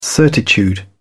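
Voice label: "certitude", British English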